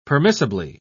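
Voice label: "permissibly", British English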